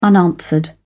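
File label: "unanswered", British English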